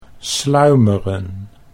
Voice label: "sluimeren", Dutch